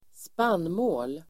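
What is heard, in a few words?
"spannmål", Swedish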